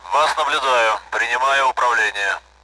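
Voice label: Speech Sound Russian Common AWACS; take control; DCS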